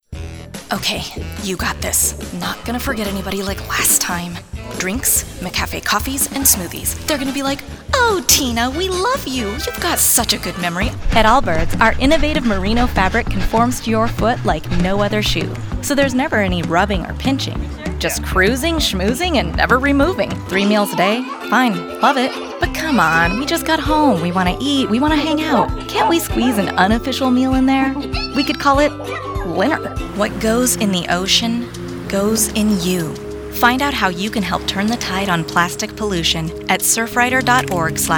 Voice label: Commercial